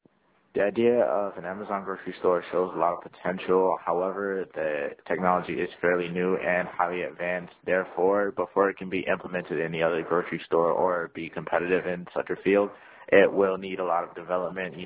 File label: Audio Message